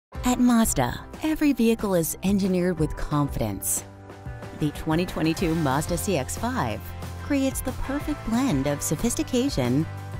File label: Automotive, Quick Energetic Female Voiceover for Car Auto Dealership